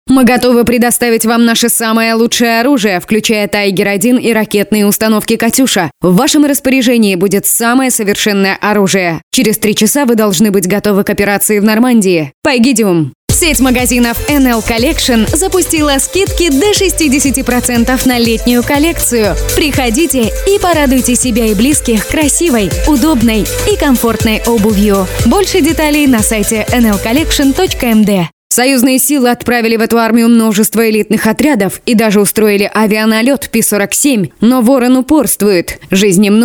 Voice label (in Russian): RU; Russian